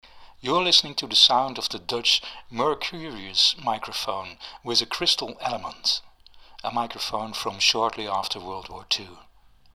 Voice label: Mercurius sound UK